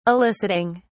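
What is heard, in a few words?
"eliciting", British English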